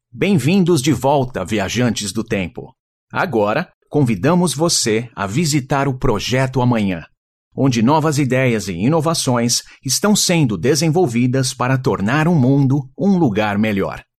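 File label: Brazilian Portuguese, male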